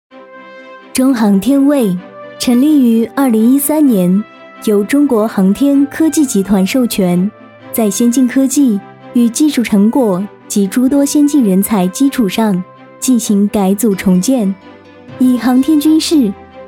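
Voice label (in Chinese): 专题片企业成品配乐; 中航天卫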